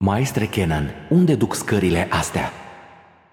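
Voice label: voice over, Romanian; Xin Zhao; game outro; bloom minigame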